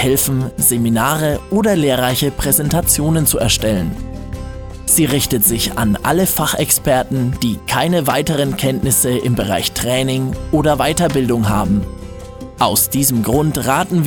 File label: E-Learning